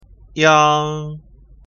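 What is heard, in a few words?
"iang", pinyin; ภาษาจีนกลาง; สำเนียงปักกิ่ง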